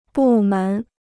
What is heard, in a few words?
"部门", Chinese